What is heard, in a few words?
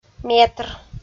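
"метр", Komi, female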